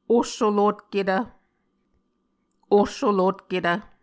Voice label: "ohsolotketv", Muscogee